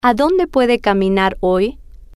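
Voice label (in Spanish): adonde puede caminar hoy, Spanish, female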